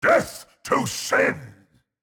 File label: Mid Fight Taunts; Voll; NPC; Voll Combat